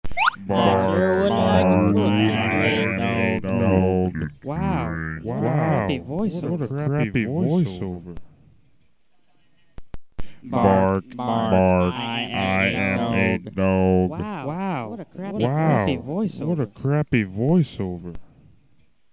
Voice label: crappy voice over; piemerican disc